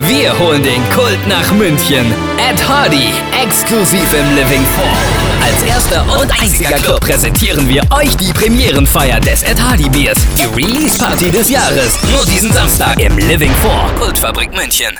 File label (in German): Radiospot Diskothek Party; Living4 Ed Hardy